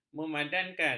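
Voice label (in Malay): "memadankan", Malay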